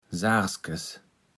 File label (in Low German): "saachskes", Platt